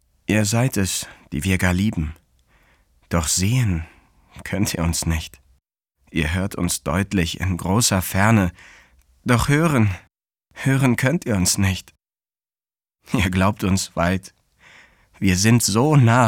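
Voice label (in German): traurig, Männer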